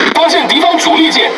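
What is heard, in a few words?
Chinese (Taiwan) Speech Detection Enemy Battleship; World Of Warships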